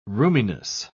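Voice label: "roominess", English, British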